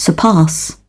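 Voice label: "surpass", British English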